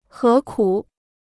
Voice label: "何苦", Chinese

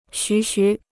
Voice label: "徐徐", Chinese

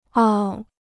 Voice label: "傲", Chinese

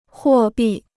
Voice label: "货币", Chinese

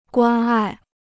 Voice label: "关爱", Chinese

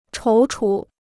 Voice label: "踌躇", Chinese

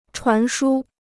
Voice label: "传输", Chinese

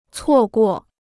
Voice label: "错过", Chinese

